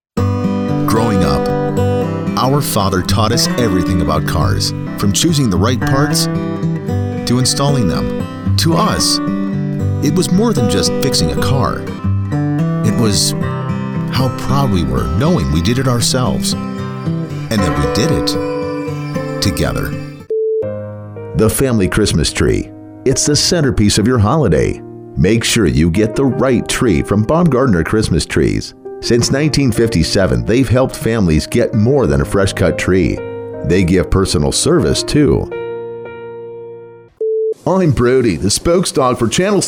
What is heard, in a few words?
Commercial, Male voices